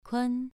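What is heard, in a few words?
"kun", Mandarin Chinese syllable, second tone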